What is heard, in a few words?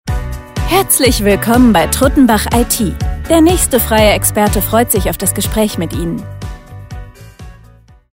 IVR; Truttenbach IT